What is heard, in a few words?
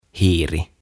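"Hiiri" Finnish; Mouse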